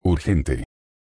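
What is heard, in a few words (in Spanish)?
"urgente", español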